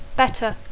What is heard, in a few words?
"better", English